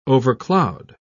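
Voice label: "overcloud", British English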